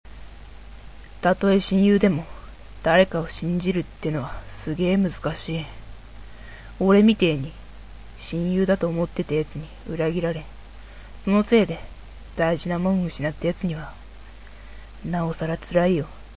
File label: boy; アレン・ウォーカー